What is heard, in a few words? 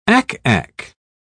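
"ack-ack", British English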